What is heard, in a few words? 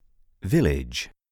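"village", English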